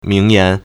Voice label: "ming2yan2", Chinese